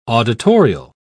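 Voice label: "auditorial", British English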